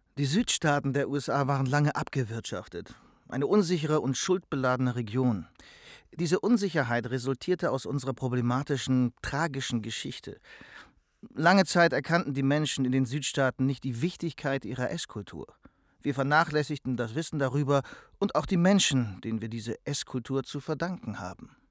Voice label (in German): Doku; Chefs Table